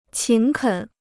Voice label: "勤恳", Chinese

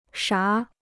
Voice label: "啥", Chinese

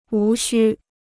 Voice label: "无须", Chinese

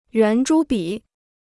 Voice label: "圆珠笔", Chinese

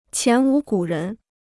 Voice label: "前无古人", Chinese

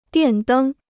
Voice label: "电灯", Chinese

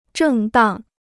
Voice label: "正当", Chinese

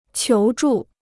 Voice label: "求助", Chinese

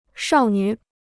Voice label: "少女", Chinese; 少女 (shào nǚ); girl; young lady

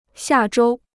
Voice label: "下周", Chinese

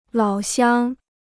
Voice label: "老乡", Chinese

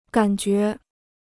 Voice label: "感觉", Chinese

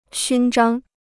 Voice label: "勋章", Chinese

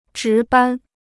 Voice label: "值班", Chinese

